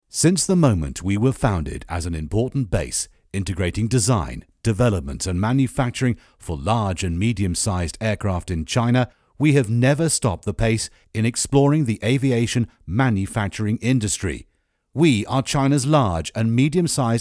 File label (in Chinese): 外籍 宣传片; 中国某飞机制造公司